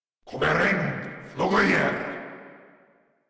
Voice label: Cover Me Grineer Male

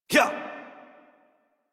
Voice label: Adult, en, Dialog; Horse Sprint; Warframe; Duviri Paradox Quest

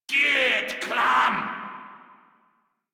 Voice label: Player Spotted, Grineer Male; Warframe

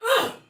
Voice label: Attack, Soldier Female, Battle; Sinecure

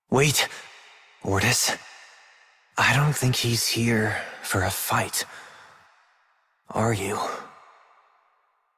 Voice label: Operator Voice; Warframe; Jade Shadows Quest

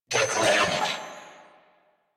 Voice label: Attack Grineer Male; New War; Narmer

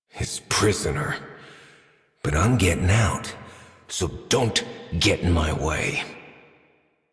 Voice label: Adult, en, Dialog; Theatre; Warframe; Duviri Paradox Quest

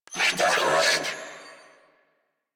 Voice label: Reload, Female; en; Warframe; New War; Narmer Grineer

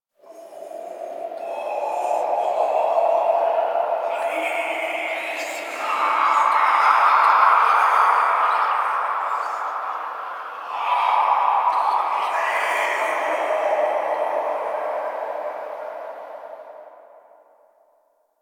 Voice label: Whispers; Inf Hub Lab Behold Us; Warframe; Ambience; Entrati

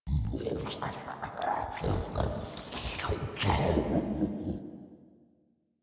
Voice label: Idle Grineer Ghoul Devourer, en

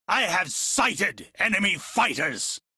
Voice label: Crew Gun Spot Fighter, Male; Dialog; en; Warframe; Railjack Crew; Arbiters Hexis

